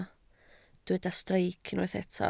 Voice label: "streic", welsh

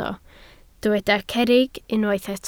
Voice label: "cerrig", welsh

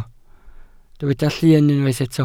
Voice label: "llun", Welsh

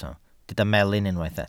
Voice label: "melyn", Welsh

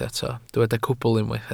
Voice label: "cwbl", welsh

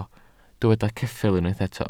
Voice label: "ceffyl", Welsh

